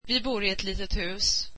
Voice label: we live in a small house, swedish